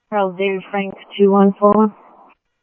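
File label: Mesa Police Department, scanner audio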